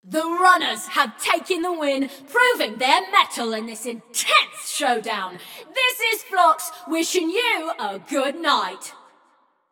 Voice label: Runners Win, Announcer; Flux; Scraprun